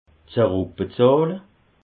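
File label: "zeruckbezahle", Alsatian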